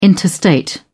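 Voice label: "interstate", British English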